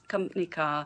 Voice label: "compny car", Derby

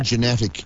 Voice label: "geneTic", Irish

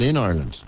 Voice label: Ireland, Irish; ɪn'ɐɪɹlənt; in Ireland (Ir)

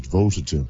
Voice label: "devoted to Him", Irish

